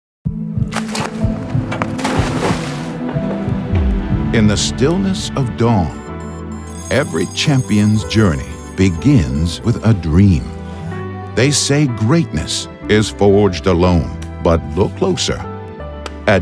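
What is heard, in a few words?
English US, TV Werbespots Online Werbespots Breites Publikum; NATIVE PROFISPRECHER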